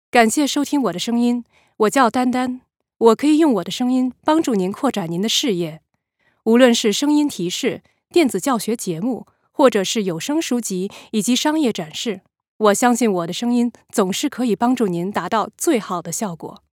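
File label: Chinese, commercial; GoLocalise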